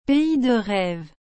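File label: "pays de reves", French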